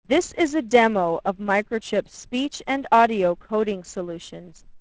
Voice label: Decoded 16KHz audio output of dsPIC Speex library at 12.8KBPS; speex speech encoding decoding